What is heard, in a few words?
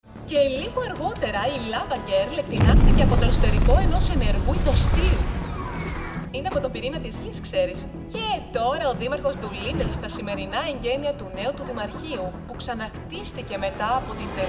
Newscaster; We Can Be Heroes